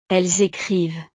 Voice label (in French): "elles ecrivent", frances